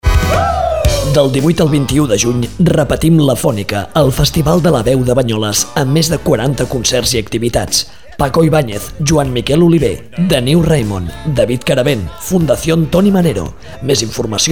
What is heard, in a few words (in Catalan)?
Falca radio